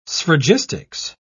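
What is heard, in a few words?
"sphragistics", British English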